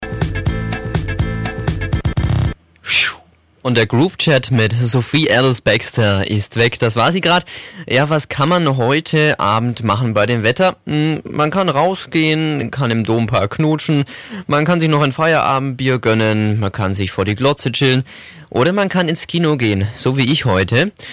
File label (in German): aircheck kinoanmod